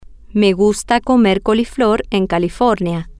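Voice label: me gusta comer coliflor en California, Spanish, female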